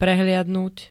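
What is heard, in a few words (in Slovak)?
"prehliadnuť", Slovak; dôkladne preskúmať, prezrieť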